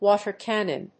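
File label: "water cannon", English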